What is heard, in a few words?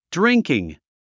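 "drinking", English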